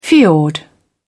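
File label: "fiord", British English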